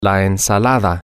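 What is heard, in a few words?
the salad, spanish, male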